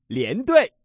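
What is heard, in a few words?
double straight, male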